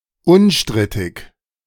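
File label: "unstrittig", German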